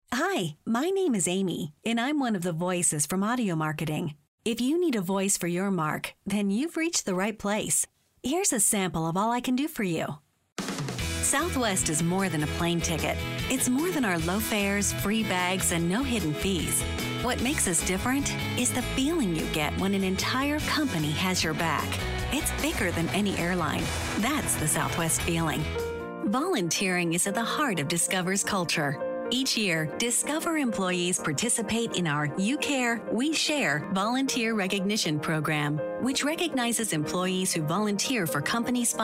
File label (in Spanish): Locutora americana; eeuu